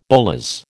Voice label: "bolas", American

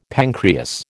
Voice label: "pancreas", American

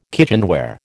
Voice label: "kitchenware", American

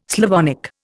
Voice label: "Slavonic", Indian

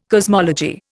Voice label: "cosmology", Indian

